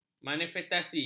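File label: "manifestasi", Malay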